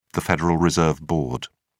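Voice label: "federal reserve board", British English, dictionary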